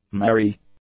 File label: "merry", english; adjectives